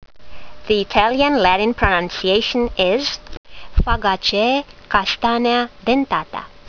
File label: latin; Castanea dentata